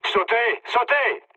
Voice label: bailout mood low, speech fr; War Thunder

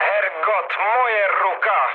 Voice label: damaged pilot, speech cze, mood low; War Thunder

